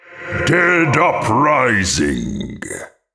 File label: voice necromancers lair taunt